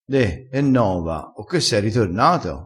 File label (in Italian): "e nova", crespinese, vocabolario dialettale